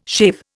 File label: "shave", Indian